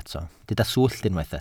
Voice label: "swllt", welsh